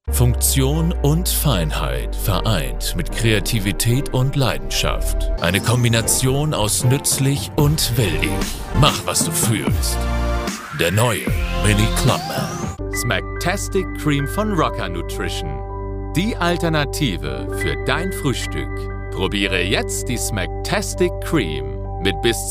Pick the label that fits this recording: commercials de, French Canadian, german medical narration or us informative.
commercials de